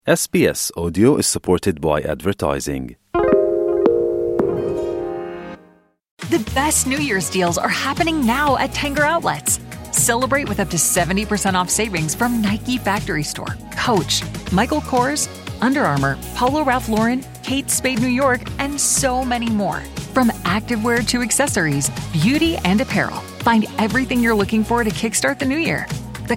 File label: cantonese